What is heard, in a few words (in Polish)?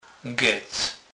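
"gec", Kurpie dialect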